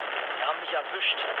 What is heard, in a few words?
aircraft destroyed, mood low, speech ge